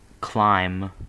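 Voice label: "clime", US English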